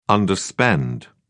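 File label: "underspend", English, British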